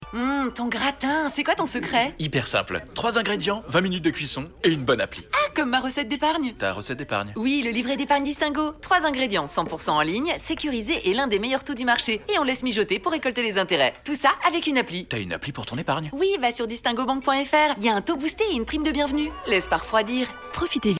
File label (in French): spot radio; DISTINGO; la copine qui donne recette